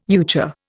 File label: "euchre", Indian